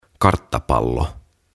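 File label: "Karttapallo", Finnish; Globe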